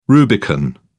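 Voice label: "rubicon", English, British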